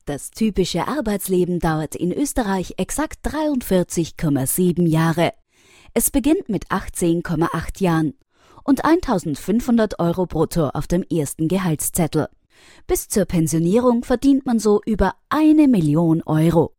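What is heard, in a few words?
Austrian German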